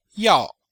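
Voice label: "yao", Chinese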